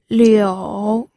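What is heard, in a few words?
"liu", third tone, pinyin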